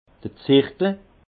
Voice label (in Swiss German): "zirkel", alsace; vin